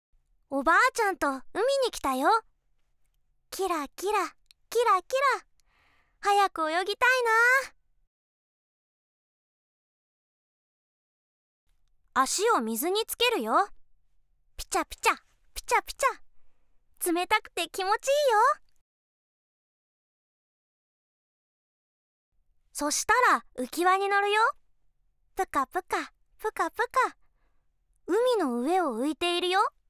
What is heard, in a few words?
Standard Japanese